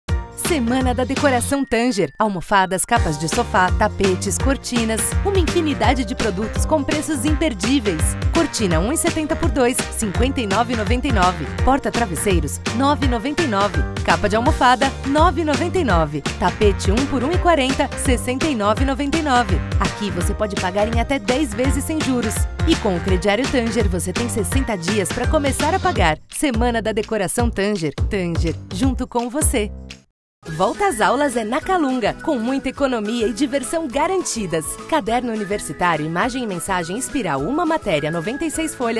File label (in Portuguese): REPERTÓRIO VAREJO